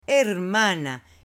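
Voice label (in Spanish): "hermana", Spanish, female; AppLenguaje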